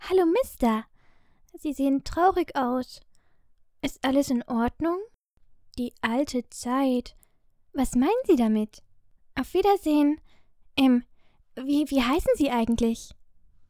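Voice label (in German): Kleines Maedchen, little girl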